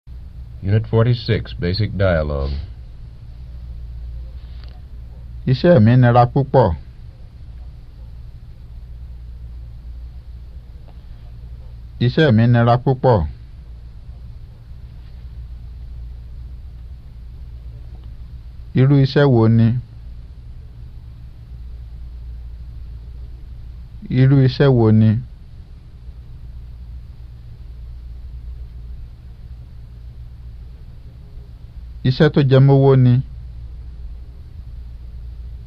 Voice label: Yoruba; FSI; Basic

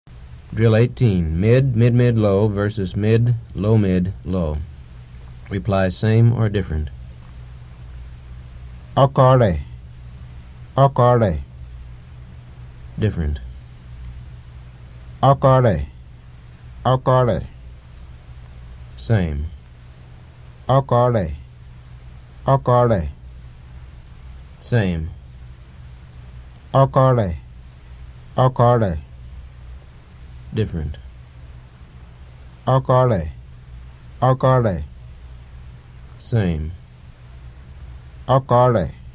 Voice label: Yoruba; FSI; Basic

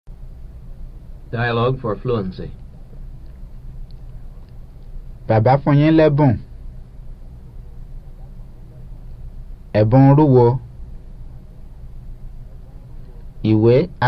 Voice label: Yoruba; FSI; Basic

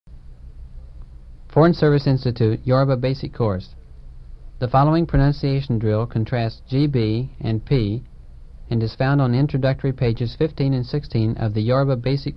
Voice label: Yoruba; FSI; Basic

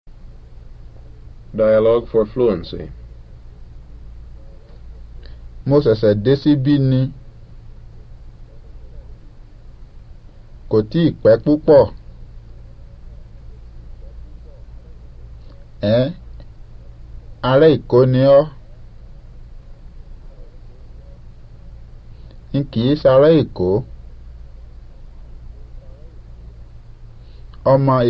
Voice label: Yoruba; FSI; Basic

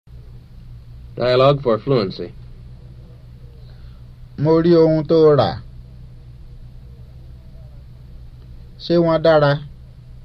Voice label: Yoruba; FSI; Basic

